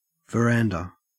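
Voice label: "verandah", Australian English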